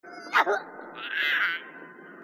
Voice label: grumble, grunt crazy; h2dialogue